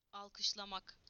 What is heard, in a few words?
"to clap", TU; PictureDictionary